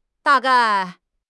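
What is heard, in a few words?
"da4 gai4", Mandarin Chinese; HSK4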